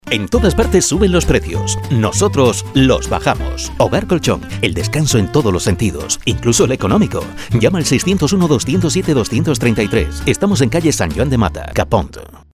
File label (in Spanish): Masculino; Sonoarte